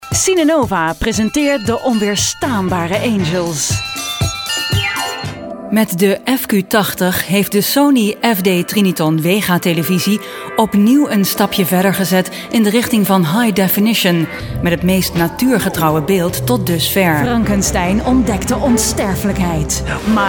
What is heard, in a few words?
Dutch